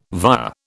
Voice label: "via", British